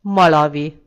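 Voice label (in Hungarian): "malawi", Hungarian; gcompris; geography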